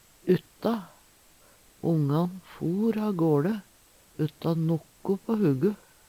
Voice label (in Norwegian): utta, Numedalsmål; utan; Ongan for agåLe utta nokko på hugu.; Preposisjon